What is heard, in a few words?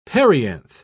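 "perianth", British English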